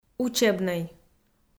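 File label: "учебнӧй", Komi, female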